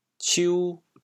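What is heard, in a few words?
"ciu2", Teochew, chaoyang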